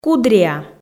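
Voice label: "кудриа", Komi, female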